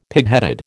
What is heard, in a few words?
"pigheaded", American